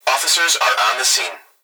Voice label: Emergency Human Young Male Voice Police Dispatch Officers Are On The Scene; emergency effects